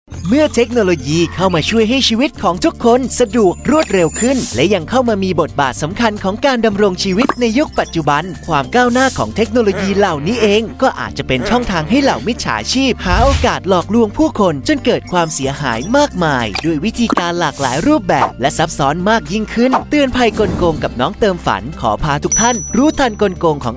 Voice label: Thai Male Narration Lively

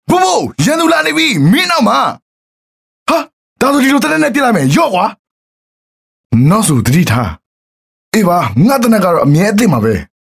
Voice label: Male Burmese Game

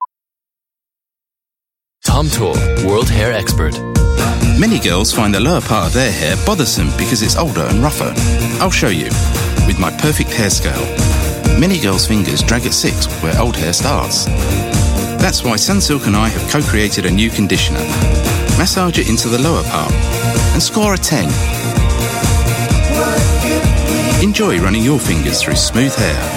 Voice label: UK English, Male; Sunsilk Tiga

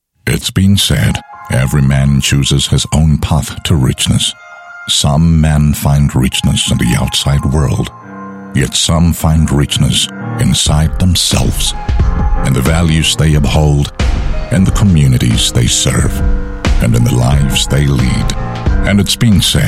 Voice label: African English Ad Radio, African Male; Castle Milk Stout

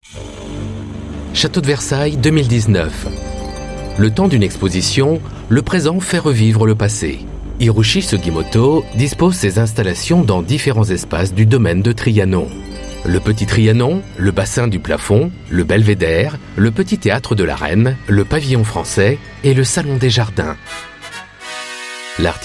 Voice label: French Radio; Versailles

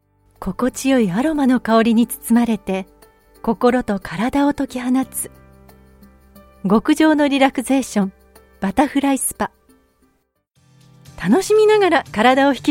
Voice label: Japanese Voice Sample

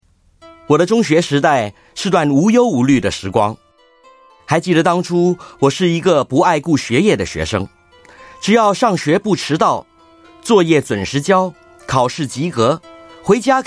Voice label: Mandarin

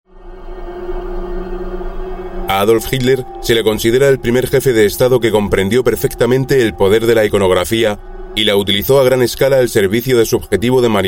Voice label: Euro Spanish Documentary Read

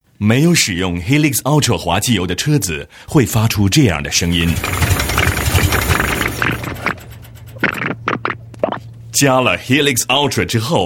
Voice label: Mandarin sample, China male

